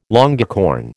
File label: "longicorn", American